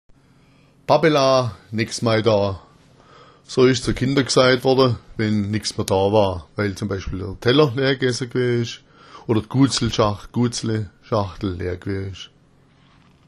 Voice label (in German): "babbela", schwaebisch